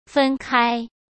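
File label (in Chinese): "分开", Mandarin Chinese; hsk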